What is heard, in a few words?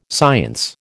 "science", American